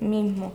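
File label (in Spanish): "mismo", Spanish, Andalusia, Sevilla; mismo [ˈmihmo]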